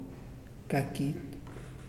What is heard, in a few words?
"Small", Muyuw; Lae Unitech